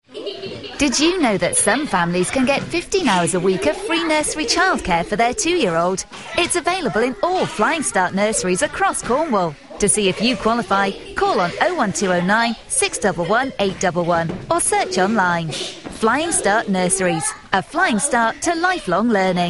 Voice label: radio ad; Heart